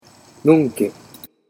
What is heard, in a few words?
"nonke", Japanese